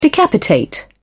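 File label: "decapitate", English, British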